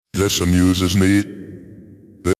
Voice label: amused